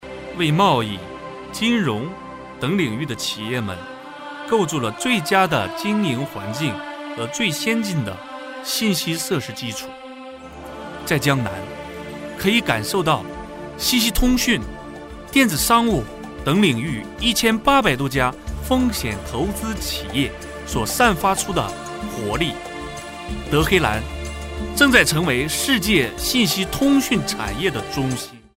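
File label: chinese mandarin male commercial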